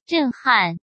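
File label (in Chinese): "震撼" Mandarin; chinese-flashcards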